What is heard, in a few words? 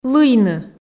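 "лыйны", Komi, female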